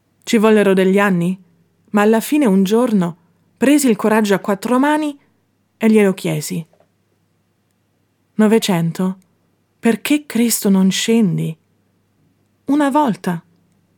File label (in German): Hoerbuch italienisch native Sprecherin